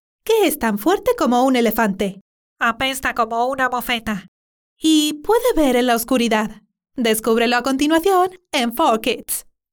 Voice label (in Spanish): Comercial Divertido para Niños